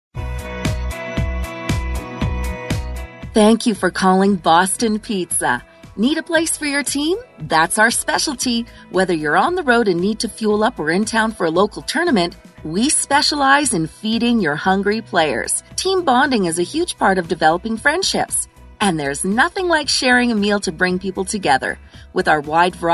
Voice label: OnHold